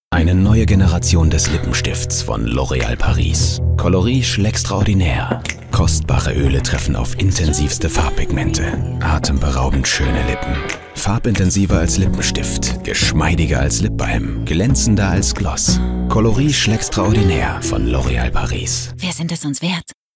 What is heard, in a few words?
tv spot; l'oréal